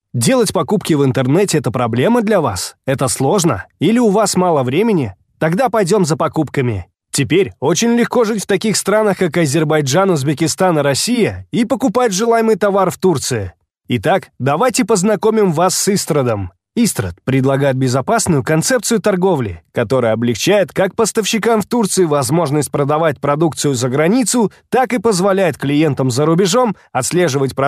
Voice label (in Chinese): 俄语男声